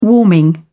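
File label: "warming", English, British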